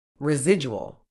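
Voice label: "residual", medical English, British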